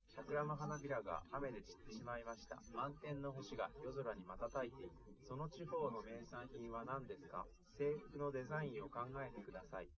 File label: test male live rec; SiSEC11; underdetermined